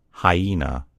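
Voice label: "hyena", American English; gcompris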